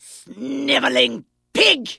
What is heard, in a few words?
Vrykul Female Warrior Greeting